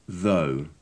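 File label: "though", British English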